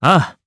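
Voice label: voice Aff1, voices heroes, Japanese; Siegfried; kingsraid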